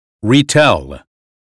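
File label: "retell", American English; 英语音标发音（剑桥版）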